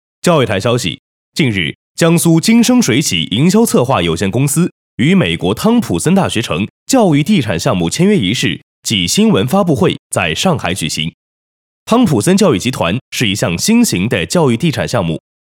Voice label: 男, 新闻播报; 教育台新闻

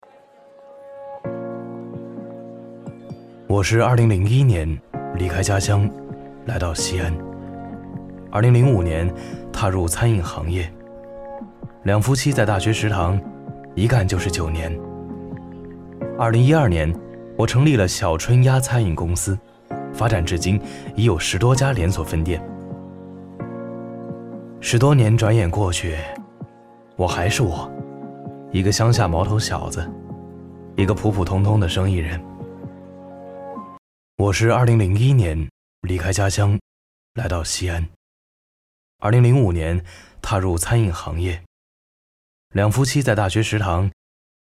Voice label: 走心旁白

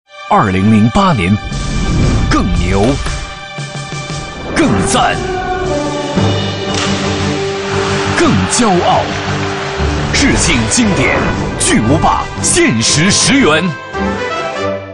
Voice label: 男, 广告; 经典巨无霸限时10元; 奥运篇

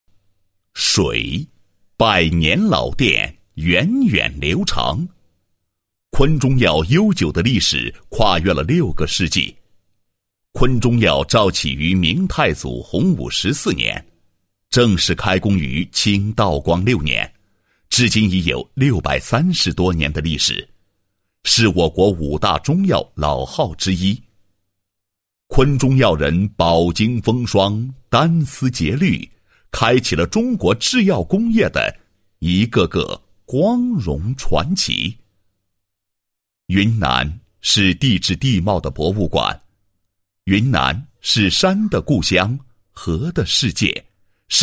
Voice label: 男 解说词 宣传片解说词; 如意花 昆中药

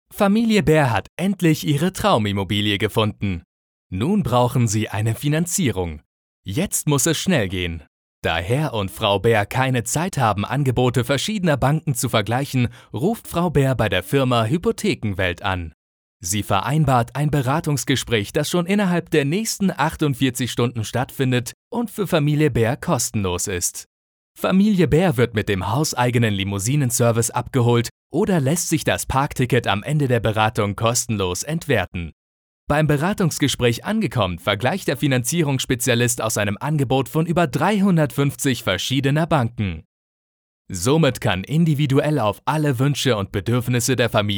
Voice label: 德语男 干音 宣传片

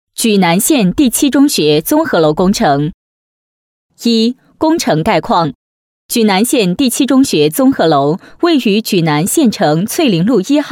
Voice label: 女, 工程, 第七中学解说词; 方言

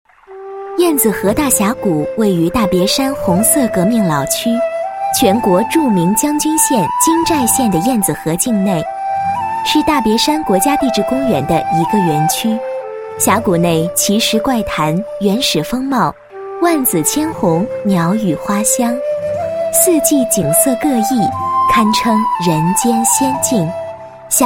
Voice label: 女 旅游广告, 大峡谷宣传; 燕子河

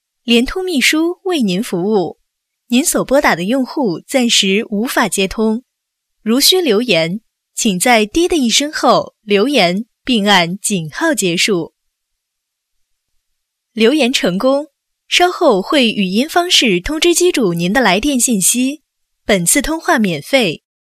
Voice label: 女 联通秘书, 国语女声配音 彩铃广告

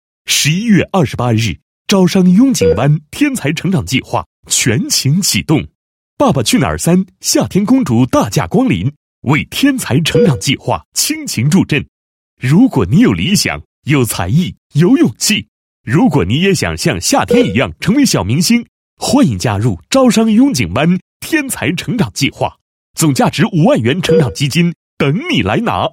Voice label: 男 宣传广告 天才成长计划; 招商雍景湾

